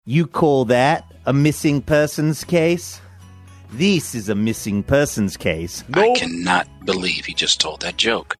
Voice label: faux australian saying nope; saying you call that missing persons case

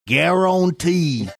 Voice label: guarantee funny

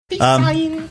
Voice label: saying peace sign sing songy manner

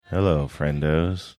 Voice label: quietly saying hello friendos

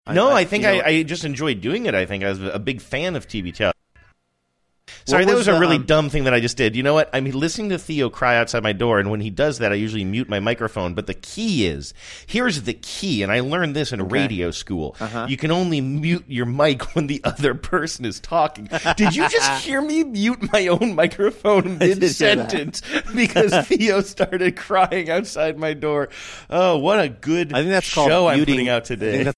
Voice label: muting his own microphone mid sentence; feeling stupid

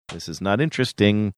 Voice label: saying this is not interesting sing songy

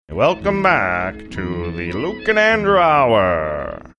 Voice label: saying welcome back to hour old timey radio

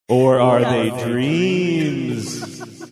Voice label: echo reverb; or are they dreams

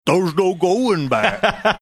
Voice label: funny; theres no going back

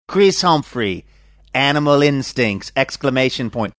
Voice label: saying animal instincts funny australian; chris humfrey

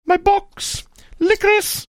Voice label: funny; my box licorice

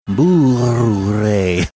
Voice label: saying something incoherent spanish

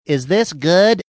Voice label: saying is this good funny

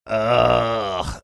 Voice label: drawn out ugh

